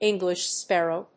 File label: "English sparrow", English